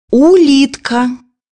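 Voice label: "snail", Russian; bukvar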